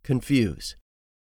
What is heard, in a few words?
"CONFUSE", English; 3000 words